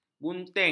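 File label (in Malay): "bunting", Malay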